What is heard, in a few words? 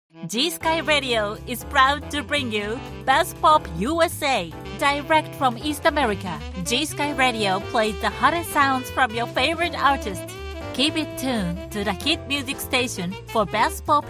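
Radio presenter with background music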